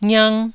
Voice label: "ngiang1", Taiwanese